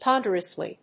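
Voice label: "ponderously", English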